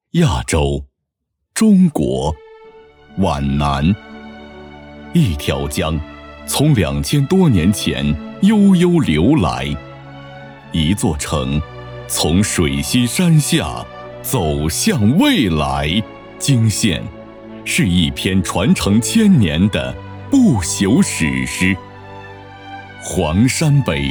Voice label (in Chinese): 舒缓开场; 亚洲定向锦标赛